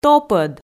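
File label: "топӧд", Komi, female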